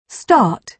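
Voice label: "start", British English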